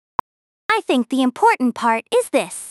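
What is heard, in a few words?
speaker female fast high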